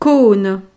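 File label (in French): "cone", French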